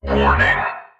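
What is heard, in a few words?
"warning", synthesized voice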